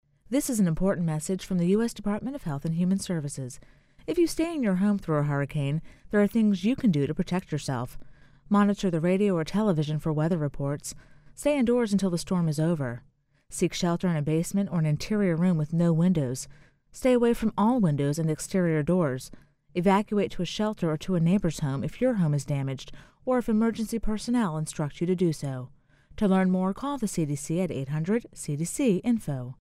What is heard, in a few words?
psa hurricane staying home female voice